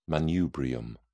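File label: "manubrium", English, British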